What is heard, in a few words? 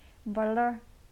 "fly (animal)", naxi